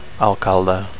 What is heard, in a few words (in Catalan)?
"alcalde", Catalan; mayor